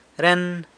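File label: "ren", this, San Lucas Quiaviní Zapotec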